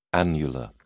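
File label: "annular", British English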